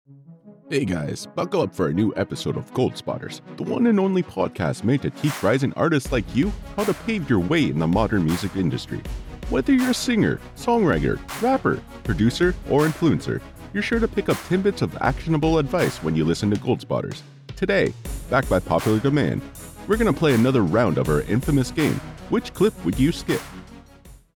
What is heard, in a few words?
pod intro